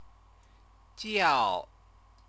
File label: "jiao", Chinese